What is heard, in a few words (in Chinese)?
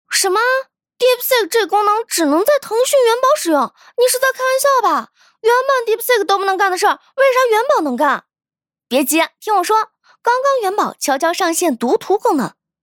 女 新媒体营销号